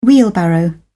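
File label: "wheelbarrow", British English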